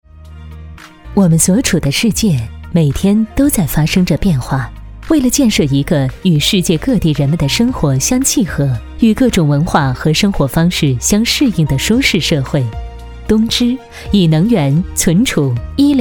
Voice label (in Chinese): 宣传片 企业 时尚, 女国; 东芝集团